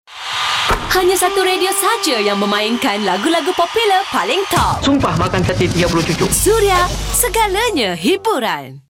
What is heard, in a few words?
SWEEPER MOVIE COLD